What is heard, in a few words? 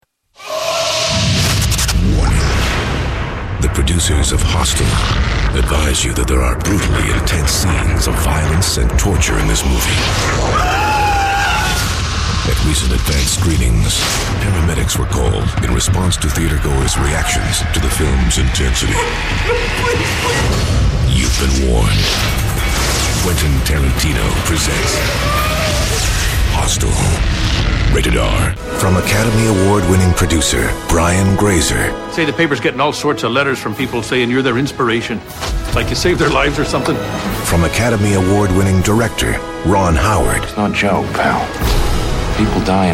trailer